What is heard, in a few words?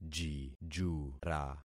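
"jijura", concatenated syllables; Affixing experiment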